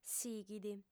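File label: "sighit", female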